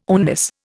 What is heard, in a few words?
"oneness", Indian